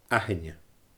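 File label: "aithinne", Scottish Gaelic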